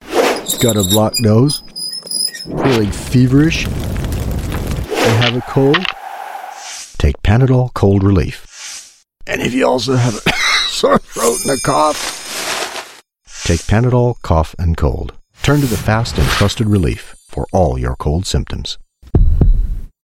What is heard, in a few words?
US English; Panadol CF